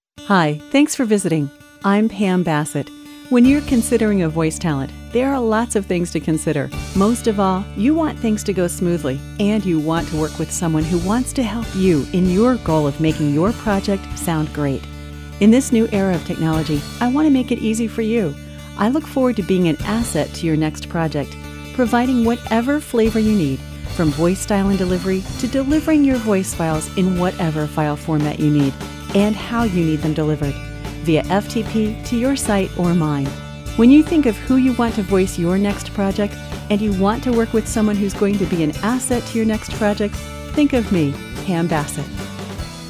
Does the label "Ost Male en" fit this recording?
No